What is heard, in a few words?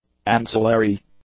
"ancillary", English; adjectives